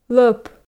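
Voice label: "лӧп", Komi, female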